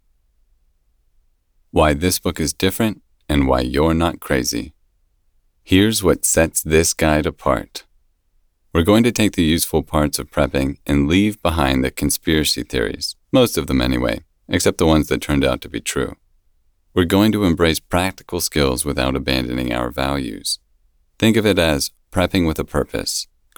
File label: Informational Guidebook Male